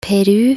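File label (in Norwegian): "Peru", Norwegian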